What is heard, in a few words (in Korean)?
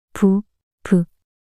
"부브" 낱소리 여자